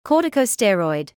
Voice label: "corticosteroid", English